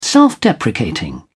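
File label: "self-deprecating", British English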